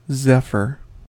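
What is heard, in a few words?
"zephyr", US English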